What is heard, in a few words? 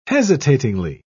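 "hesitatingly", British English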